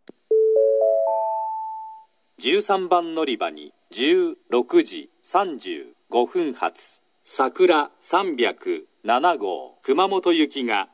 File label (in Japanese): 13番のりば予告放送, 新幹線ホーム; 新鳥栖; さくら307号 熊本行き